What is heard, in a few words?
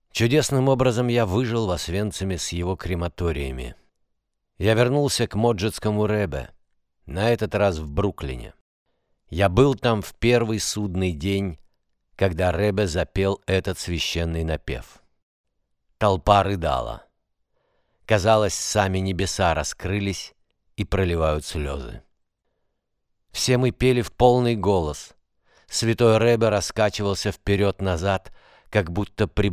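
Film Kommentar, Russian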